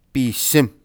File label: "pisim", old crk